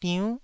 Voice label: "diunn9", Taiwanese syllable